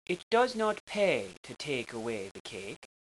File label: pay, irish